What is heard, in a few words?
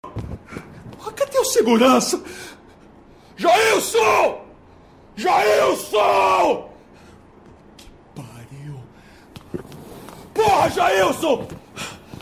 audio drama; nerdcast 342; t-zombii